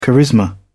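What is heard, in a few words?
"charisma", British English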